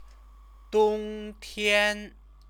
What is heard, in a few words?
"dongtian", Chinese; 冬 天; winter; noun; Chinese lessons